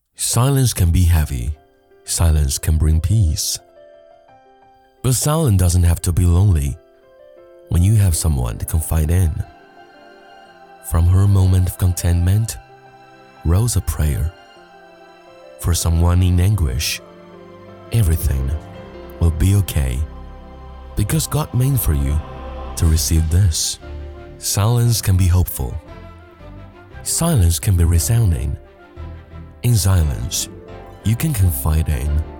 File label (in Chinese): 男 英文 产品视频 温暖走心